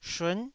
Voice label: "shun9", taiwanese Syllables